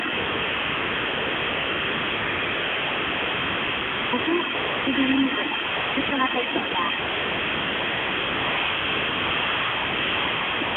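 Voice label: double microphone off, phone; fr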